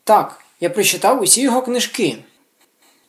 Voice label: dialogue, Ukrainian